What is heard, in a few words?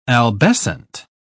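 "albescent", British English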